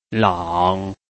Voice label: "lang3", Chinese